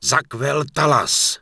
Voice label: Human Male Thank You, Human Vocal Male; wowpreklad; czwow